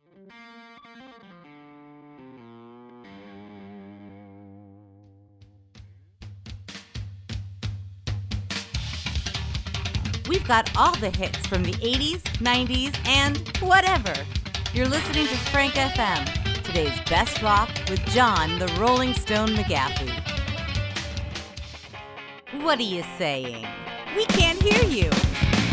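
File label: commercial; Frank FM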